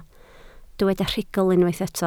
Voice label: "rhugl", Welsh